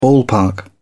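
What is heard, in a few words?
"ballpark", British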